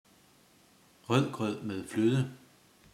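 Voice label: "rødgrød", danish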